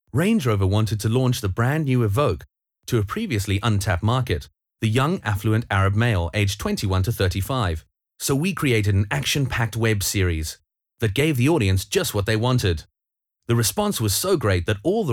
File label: VO, English, Male